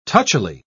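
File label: "touchily", English, British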